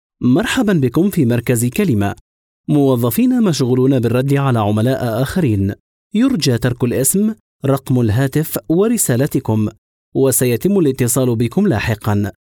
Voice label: IVR On Hold Voicemail Greetings

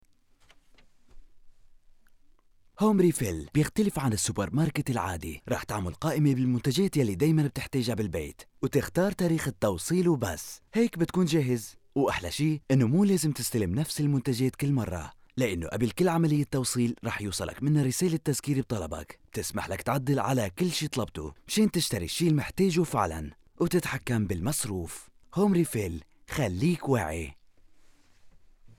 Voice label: Arabic Narration Voice Overs

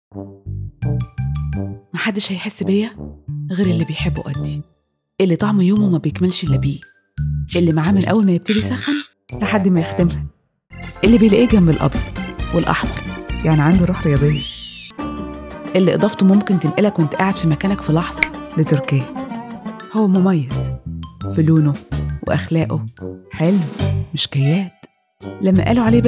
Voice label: Arabic Egyptian

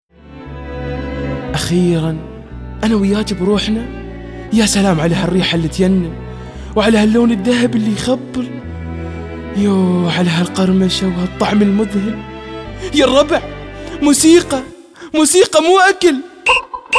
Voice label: Arabic Corporate Narrations

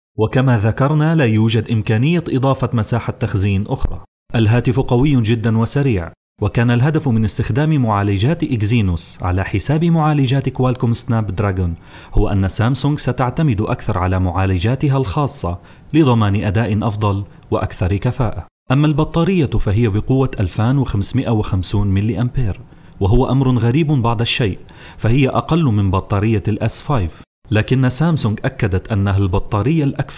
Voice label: Arabic Explainer Video Voice Overs

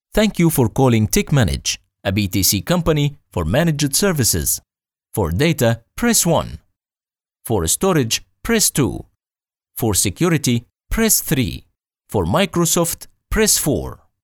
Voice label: English IVR On Hold Voicemail Greetings

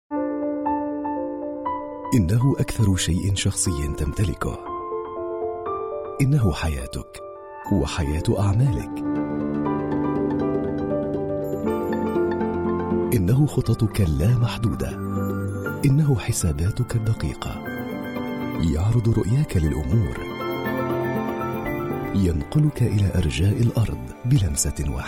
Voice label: Radio TV Commercial Voice

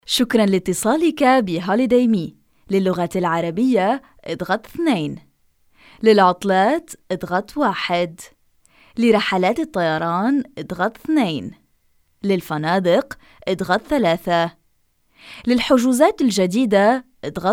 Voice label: Arabic IVR On Hold Voicemail Greetings

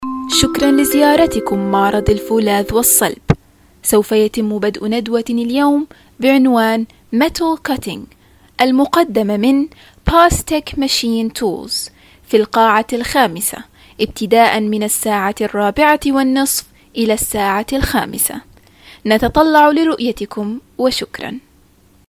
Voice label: Arabic IVR On Hold Voicemail Greetings

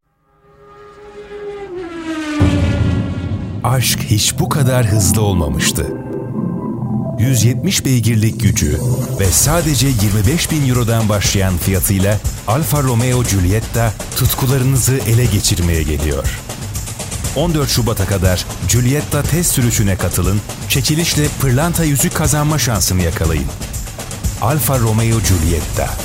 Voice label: Explainer Video Voice Overs

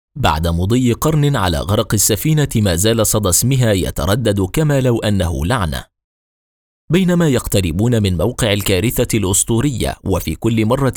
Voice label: Arabic Explainer Video Voice Overs

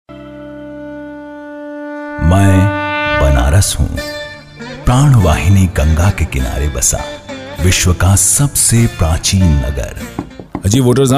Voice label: Explainer Video Voice Overs

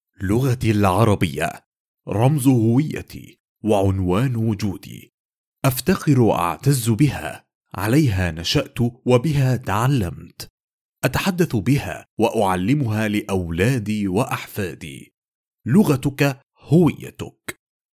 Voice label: Arabic Corporate Narrations

